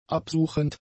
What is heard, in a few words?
"absuchend", german; adjectives